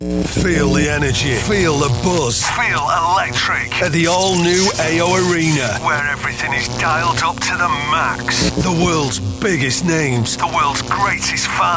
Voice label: radio promo; ao arena